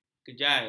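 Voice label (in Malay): "kejai", Malay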